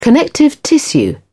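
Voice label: "connective tissue", British English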